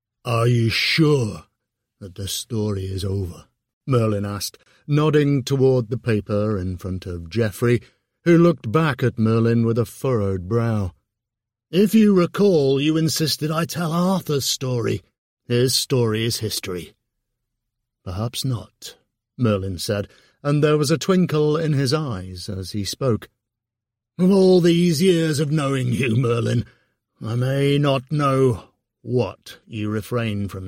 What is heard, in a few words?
Character